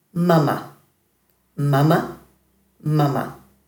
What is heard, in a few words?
"mama", Dhurga